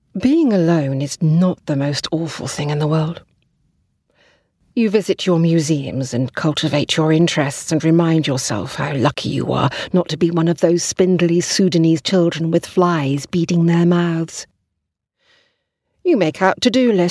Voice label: audiobooks, Charming Sultry Voice